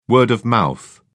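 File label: "word of mouth", British English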